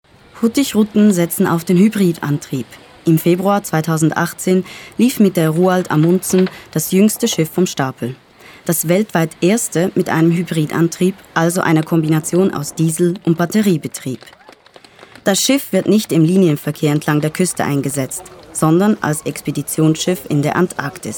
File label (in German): Kommentar Voice Over